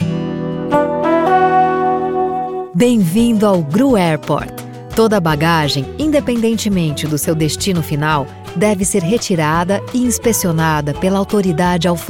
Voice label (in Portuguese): voz da marca; gru airport